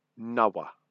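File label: "nawa", Māori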